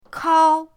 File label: "kao1", pinyin; pinyindct-open